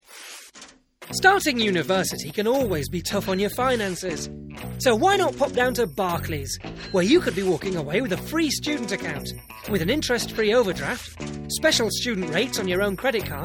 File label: Commercial voice